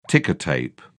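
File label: "ticker tape", British English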